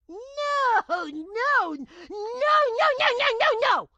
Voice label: grandma chopper missed; Blind Drive